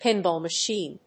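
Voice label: "pinball machine", English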